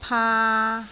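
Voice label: "paa3", Cantonese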